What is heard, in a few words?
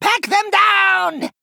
Male Goblin Attack